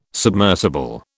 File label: "submersible", British